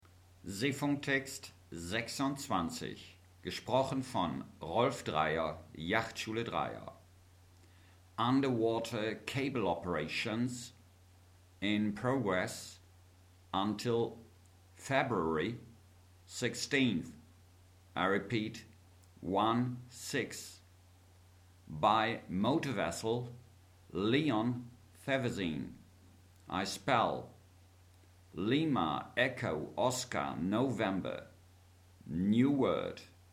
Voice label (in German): Seefunktext